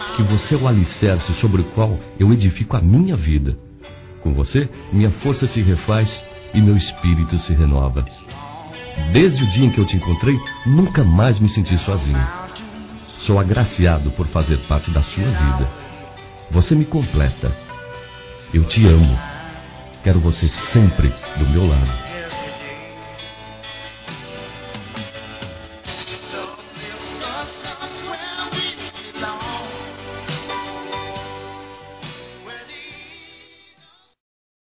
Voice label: Casamento Esposa Masculino; Telemensagens 2017; Aniversários

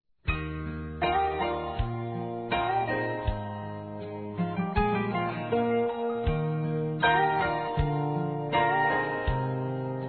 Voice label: ANIV. DE PAI F; Aniversários; Aniversários diversos; fem

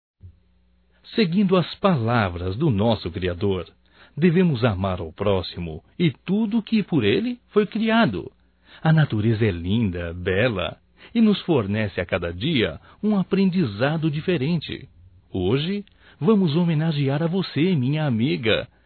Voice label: HOMENAGEM A VETERINáRIA (M), Telemensagens; Homenagens; Telemensagens 2017

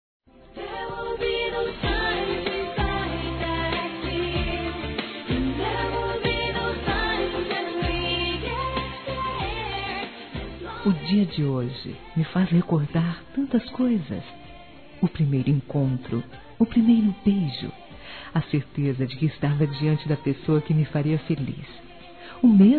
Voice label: Aniversário Namoro Feminino; Aniversários; ANIVERSARIO romantico

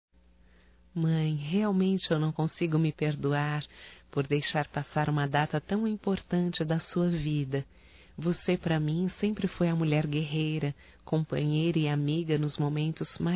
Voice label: Aniversário Atrasado Mãe Feminino; Telemensagens 2017

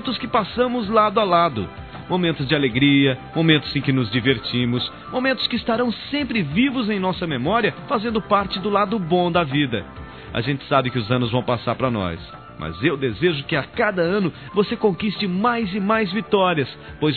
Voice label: Aniversário Primo Masculino; Aniversários; Aniv Familiar

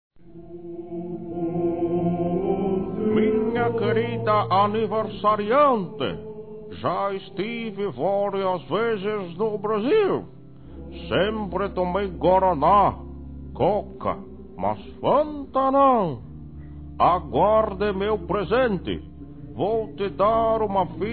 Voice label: PAPA F, Engraçadas; Telemensagens; Telemensagens 2017

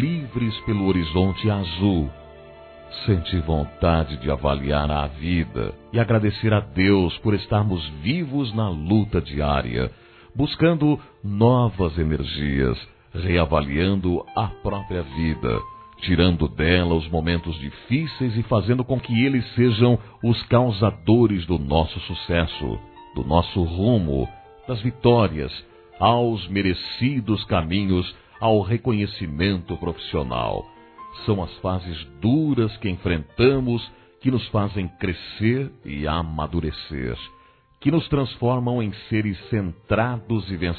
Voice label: Amizade, Telemensagens, Masc

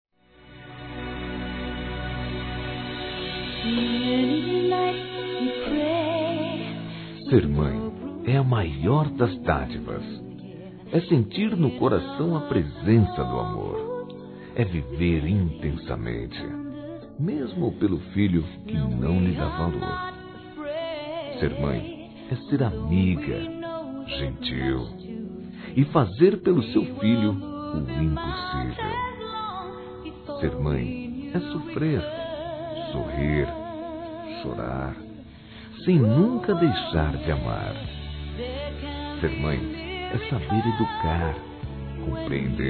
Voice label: SER MÃE M, Dia das Mães, Masc; Telemensagens 2017

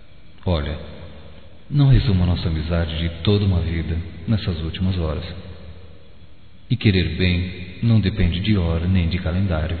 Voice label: Imprevisto Para Aniversário Masculino, Só Voz; Telemensagens; Telemensagens 2017

